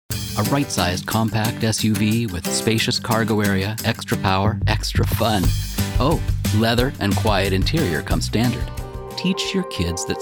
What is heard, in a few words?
Voiceover